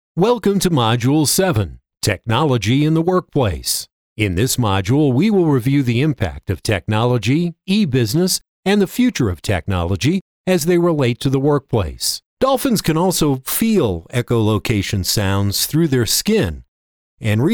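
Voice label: e-learning, male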